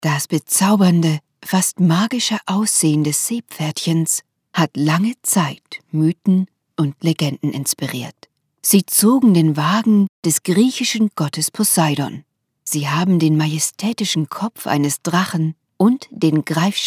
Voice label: german audiobooks

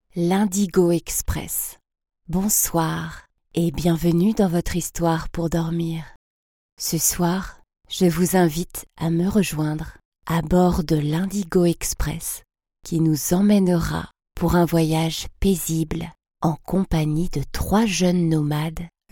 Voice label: french narration

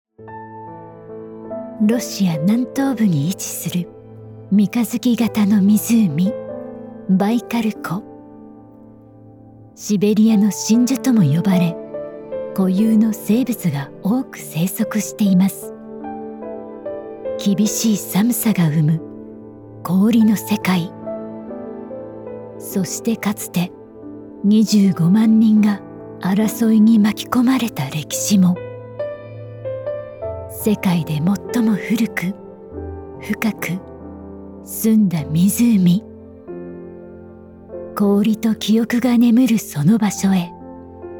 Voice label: japanese documentaries

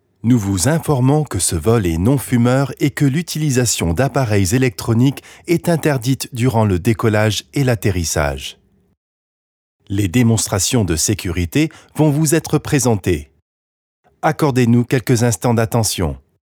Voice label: french others

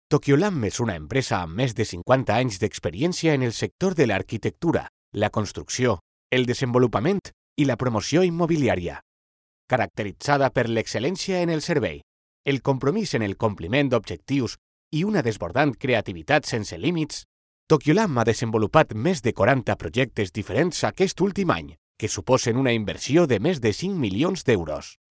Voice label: catalan commercial

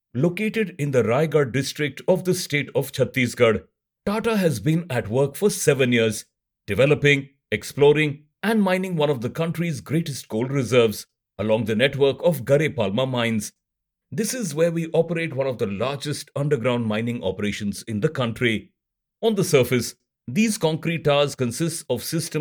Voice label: english indian narration

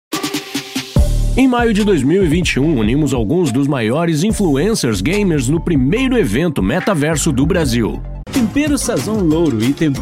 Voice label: portuguese brazilian commercial

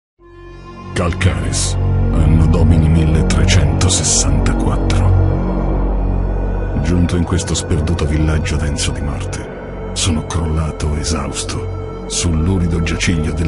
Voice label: italian narration

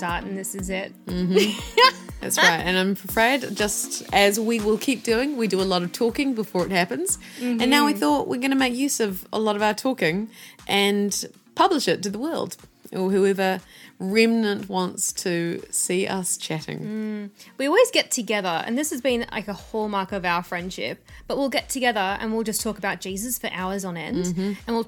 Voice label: english new zealand podcasts

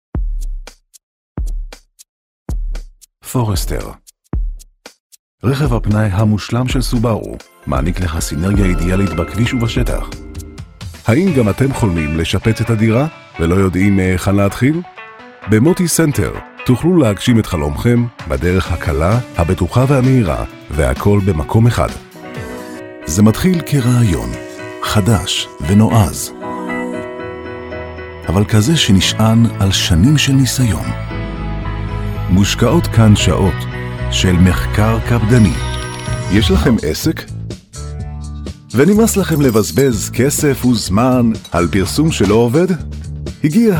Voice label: hebrew narration

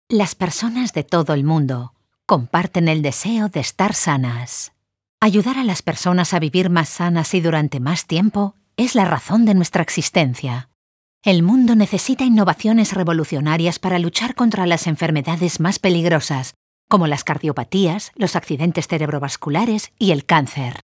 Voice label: spanish medical narration

